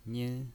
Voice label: "nin1", pinyin